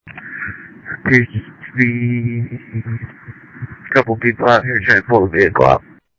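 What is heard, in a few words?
Lakes Sheriff Lake Patrol, scanner audio trunk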